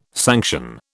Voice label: "sanction", British